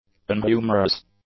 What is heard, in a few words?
"unhumorous", english; adjectives